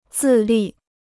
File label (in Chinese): "自立", Chinese